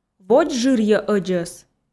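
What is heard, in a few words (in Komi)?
"водзжырйӧ ӧдзӧс", Komi, female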